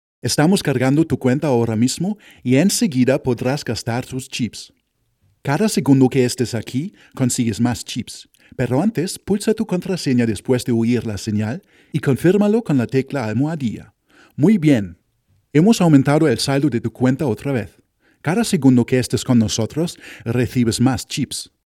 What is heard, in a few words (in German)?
Spanische Telefonansage